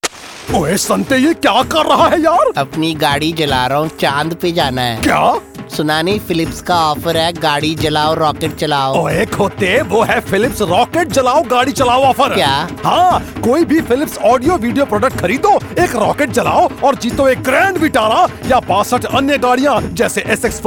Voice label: Character Spot Punjabi Style; Phillips

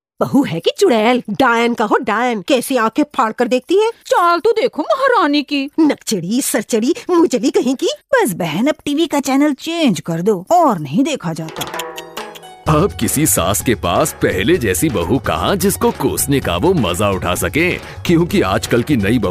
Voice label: Radio Spot; TV show; Teen Bahuraaniyaan; Mother in Laws; Zee TV

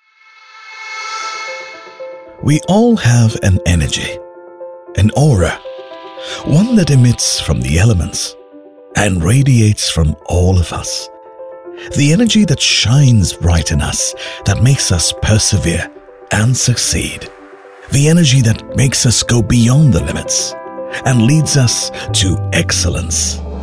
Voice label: Corporate AV English; Hyundai Aura

